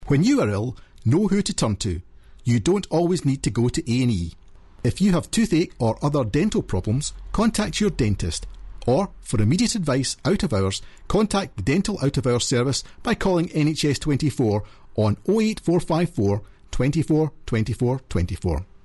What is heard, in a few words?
advert dental; nhsggc